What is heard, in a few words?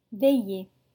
"veillées", French